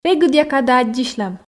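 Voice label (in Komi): регыдъя кадӧ аддзысьлам, Komi, female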